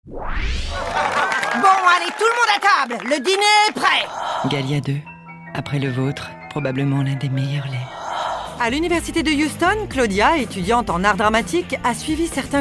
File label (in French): comedienne doublage voix off; medley